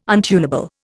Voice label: "untunable", Indian